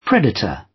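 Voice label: "predator", British; Flora and fauna, agriculture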